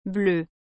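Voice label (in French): "bleue", French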